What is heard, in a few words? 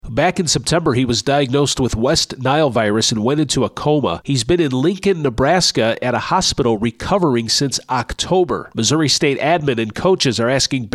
art announcer